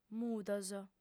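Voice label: "mudos" Sardinian, female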